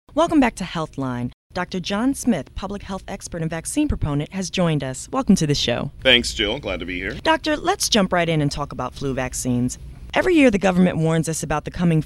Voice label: English American podcasts